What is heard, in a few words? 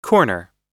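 "corner", American English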